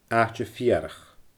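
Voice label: "aaite feurach", Scottish Gaelic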